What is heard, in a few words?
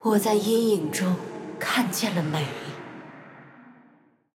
zh-cn; morgana